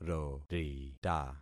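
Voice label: "rorita", concatenated syllables; Affixing experiment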